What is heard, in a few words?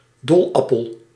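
"dolappel", Dutch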